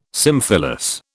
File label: "symphyllous", British